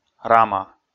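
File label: "рама", Komi, male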